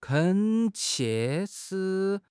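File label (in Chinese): "恳切", Chinese; HSK6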